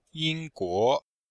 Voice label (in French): "ying1guo2", chinois; Cours de Chinois